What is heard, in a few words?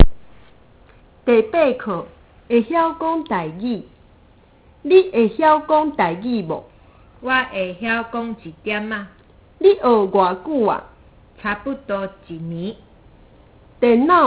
Taiwanese